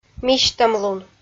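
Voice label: "мисьтӧмлун", Komi, female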